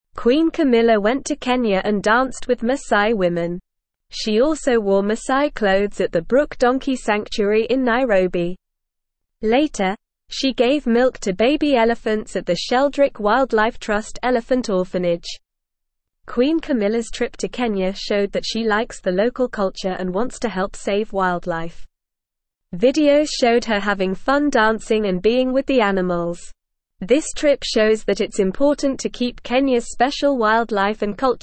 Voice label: English Newsroom Beginner NORMAL Reading; Queen Camillas Trip to Kenya Saving Wildlife and Sharing Cu